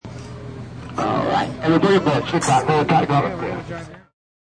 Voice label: Shuttle Announcement, Shuttle ride announcement from driver.; Busses; Vehicles